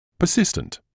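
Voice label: "persistent", British English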